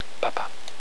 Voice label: "papa", french